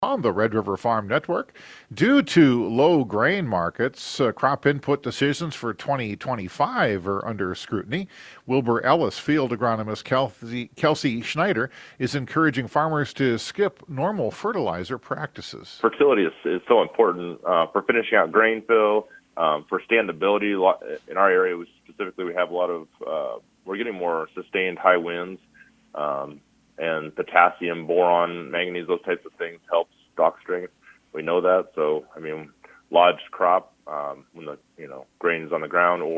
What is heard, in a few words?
air check; Wilbur-Ellis